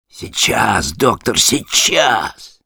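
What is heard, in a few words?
Sniper activate charge, russian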